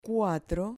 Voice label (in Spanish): "cuatro", Spanish